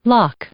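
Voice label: "lock", English; noun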